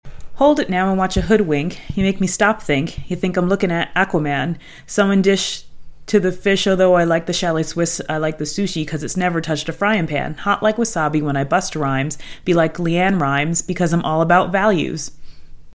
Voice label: desk distance; microphones; AT2020USBPlus